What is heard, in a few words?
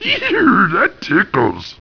annoyed; orcs; war2; basic orc voices